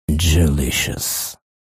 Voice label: shoutout en; jewels